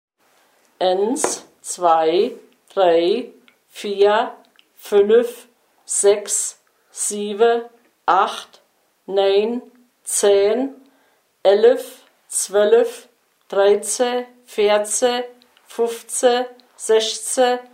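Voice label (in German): Zahlen, O-Ton Hunsrück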